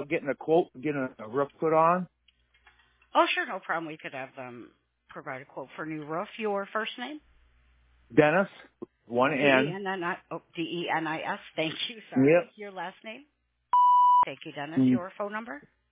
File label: Roofing Message Taking Call Sample